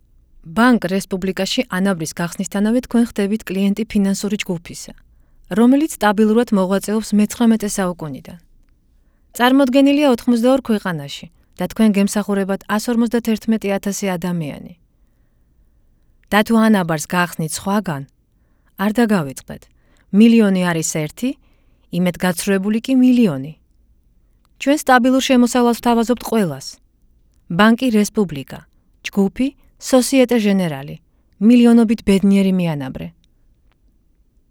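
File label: georgian female commercial; voice demos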